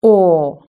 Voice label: "oar", british english

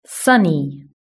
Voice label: "sunny", British English

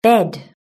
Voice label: "bed", british english

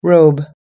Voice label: "robe", us english

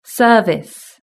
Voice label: "service", british english

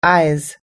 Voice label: "eyes", us english

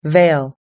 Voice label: "veil", us english

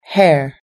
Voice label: "hair", us english

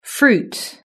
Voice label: "fruit", british english

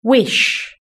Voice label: "wish", British English

